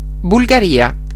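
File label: "bulgaria", Italian; geography; gcompris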